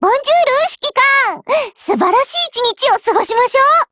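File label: HELLO Japanese, Voice; GF Alarm; AAT52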